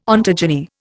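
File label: "ontogeny", Indian English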